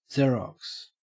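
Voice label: "xerox", Australian English; pronunciations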